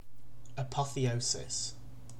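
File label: "apotheosis", British English; pronunciations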